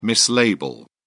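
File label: "mislabel", British English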